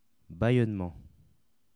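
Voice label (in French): "ballonnement", French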